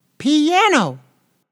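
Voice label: English piano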